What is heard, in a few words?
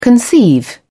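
"conceive", British English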